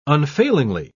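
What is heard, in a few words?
"unfailingly", English, uk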